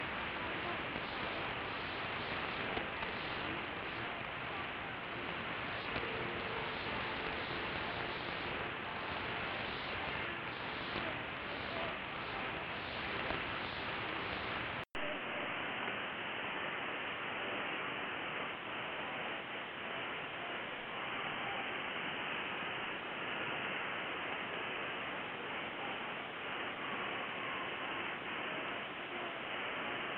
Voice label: 11815khz AM 6khz; Belka-DSP; Belka DX; Brasil Central

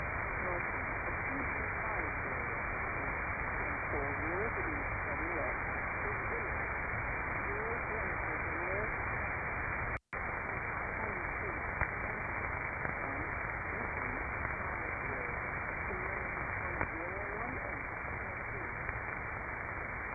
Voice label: 11253KHz USB Volmet; RAF

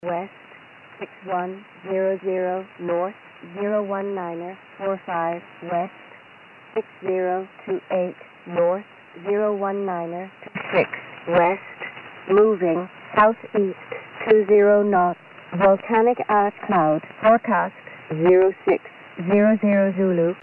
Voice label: USB Shannon Volmet; 5505khz

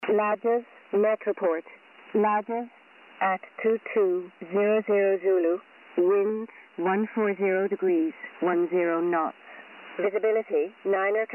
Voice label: Shannon Volmet USB; 5505khz; 2.7khz

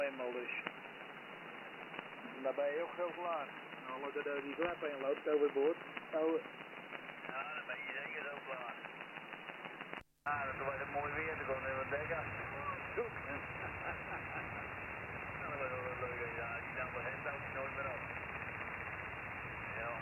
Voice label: USB NL Fischer; Pocket

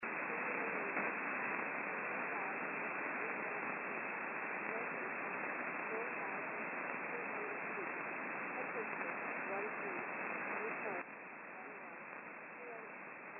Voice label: Shannon Volmet; 5505khz

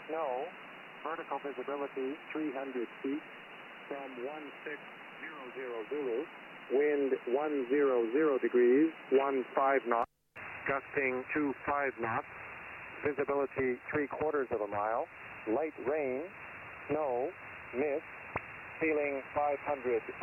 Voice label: USB Gander Radio; Pocket

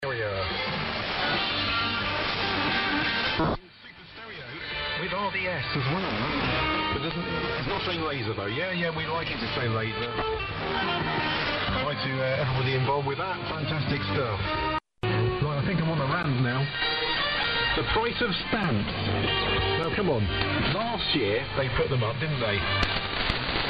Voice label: 4015khz AM; 9.5khz; Laser Hot Hits

